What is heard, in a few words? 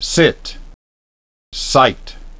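"sit" "site", English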